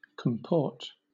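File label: "comport", British English; pronunciations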